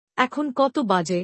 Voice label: what time is it bangla; Time and Routine